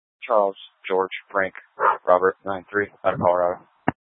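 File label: Incident Tactical, scanner audio recording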